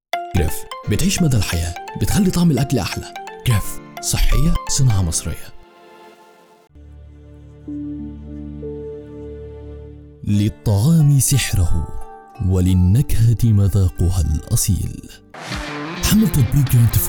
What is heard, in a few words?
Commercial Arabic; Demoreel